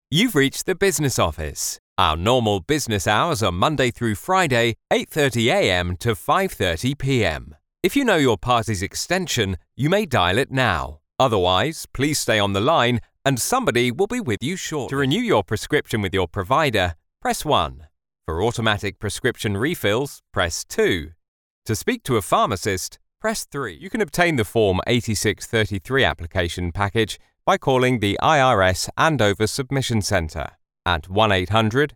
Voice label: On Hold